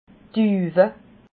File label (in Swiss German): "duwe", Alsace